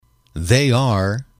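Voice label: "they are", English, male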